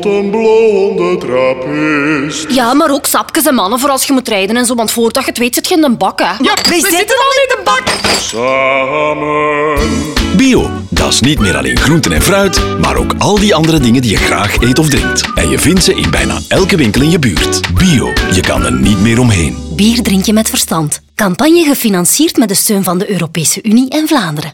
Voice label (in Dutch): Radio; VlamBio Dranken